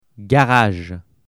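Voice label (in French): "garage", French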